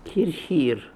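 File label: "Hirr Hirr", pronunciations; Fourth Way; Beelzebub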